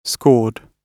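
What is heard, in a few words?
"scored", British English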